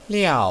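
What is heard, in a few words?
"liao", Mandarin Chinese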